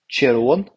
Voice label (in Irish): Tir Eoghain, gaeilge